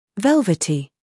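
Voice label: "humor", British; velvety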